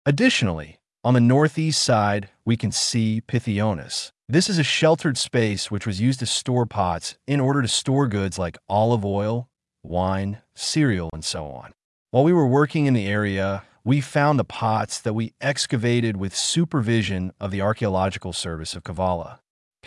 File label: english, Audio guided tour; Πιθεώνας; Pitheonas